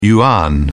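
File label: "yuan", British English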